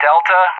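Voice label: "Delta", English; JTAC; Alphabet; DCS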